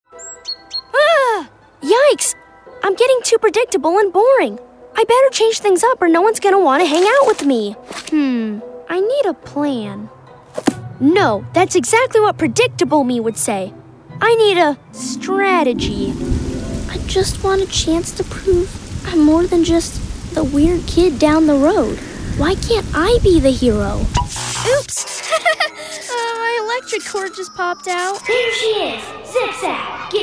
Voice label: kids animation